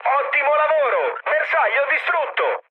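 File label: Italian bomb success mood med, speech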